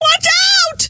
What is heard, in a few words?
"watch out", scientist female